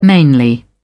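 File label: "mainly", British English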